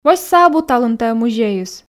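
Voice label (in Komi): восьса абу талун тайӧ музейыс, Komi, female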